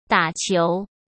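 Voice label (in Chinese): "打球", Mandarin; chinese flashcards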